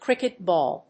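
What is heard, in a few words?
"Cricket ball", English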